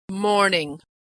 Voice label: "morning", pronunciation

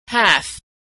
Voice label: "half", pronunciation

